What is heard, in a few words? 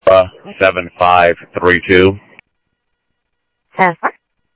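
Sheriff Lake Patrol, scanner audio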